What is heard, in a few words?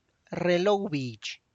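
tlacochahuaya, Zapotec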